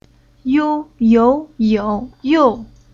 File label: "You", Chinese